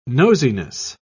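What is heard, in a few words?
"nosiness", British English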